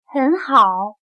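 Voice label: "很好", Chinese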